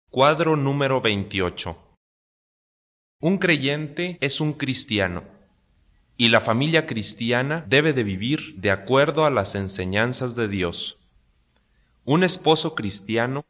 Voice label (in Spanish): good news spanish mexico